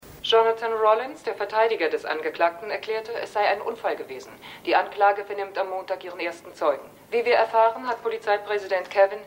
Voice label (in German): Nachrichtensprecherin